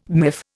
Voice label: "miff", Indian

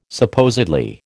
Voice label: "supposedly", American

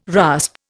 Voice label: "rasp", Indian

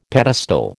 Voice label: "pedestal", American

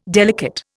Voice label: "delicate", Indian

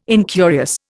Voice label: "incurious", Indian

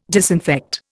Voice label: "disinfect", Indian

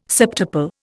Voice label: "septuple", Indian

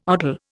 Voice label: "odal", Indian

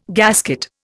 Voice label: "gasket", Indian

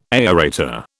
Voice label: "aerator", British

